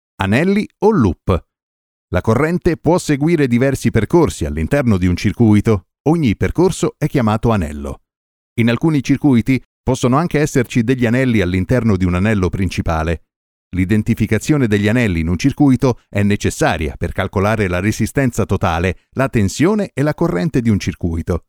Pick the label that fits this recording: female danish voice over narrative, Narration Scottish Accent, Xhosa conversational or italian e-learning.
italian e-learning